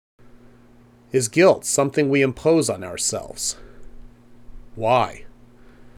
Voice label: Voiceover